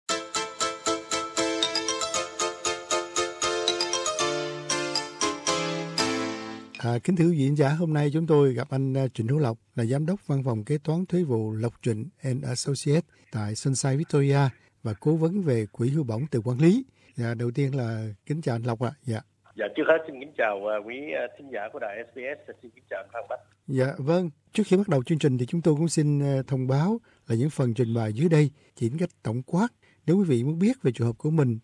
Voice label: vietnamese; tax; pay back study and training loans